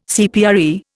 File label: "CPRE", Indian